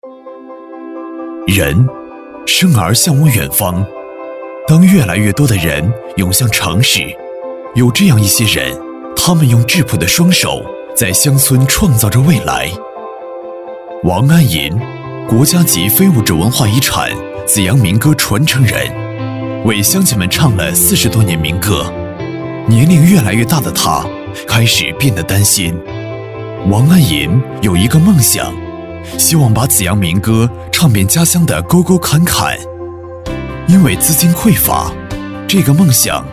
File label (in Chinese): 人物记录片旁白